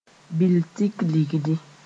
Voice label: "bil-tik ligdi", Moore

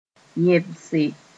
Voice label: "yẽbse", Mooré; copulate with a female

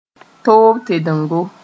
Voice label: "tob-tedengo", moore

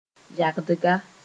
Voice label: "yagdga", Moore

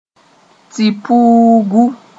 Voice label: "tɩ-puugu", Moore; flower

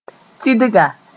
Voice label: "tɩdga", moore

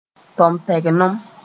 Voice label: "tompɛglem", Mooré

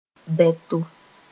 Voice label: "bẽto", Mooré; put to the test

